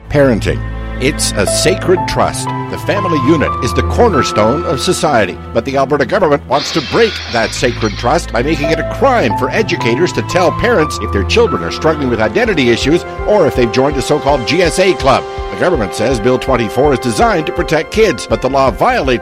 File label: radio ad